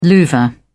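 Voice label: "louvre", British English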